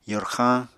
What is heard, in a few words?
"yorxañ", Apatani